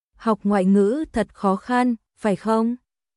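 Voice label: Vietnamese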